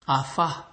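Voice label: "alfa", Nigerian Pidgin, male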